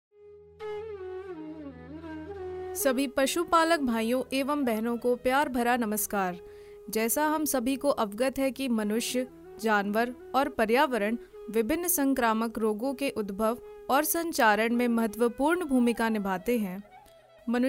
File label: INTRODUCTION HINDI